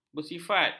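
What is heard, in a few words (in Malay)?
"bersifat", Malay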